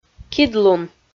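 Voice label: "кидлун", Komi, female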